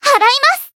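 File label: Voice finish, Japanese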